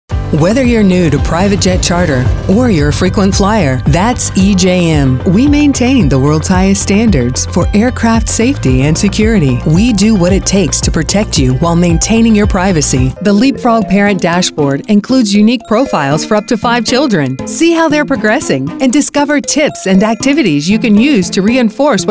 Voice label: corporate